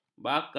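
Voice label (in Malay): "bakar", Malay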